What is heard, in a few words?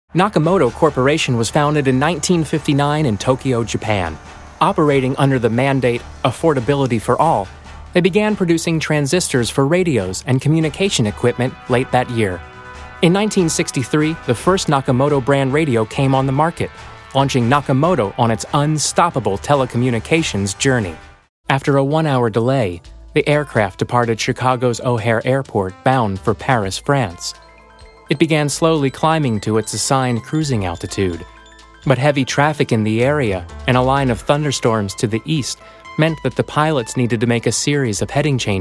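American English, narration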